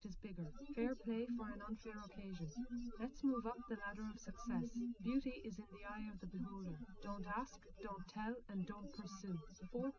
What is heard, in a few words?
female, live rec; SiSEC08; SiSEC underdetermined